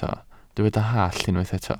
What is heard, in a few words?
"hallt", Welsh